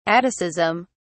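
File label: "atticism", English, British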